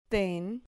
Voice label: "ten", Spanish syllable